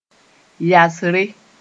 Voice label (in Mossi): "yasre", moore